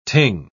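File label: "ting", English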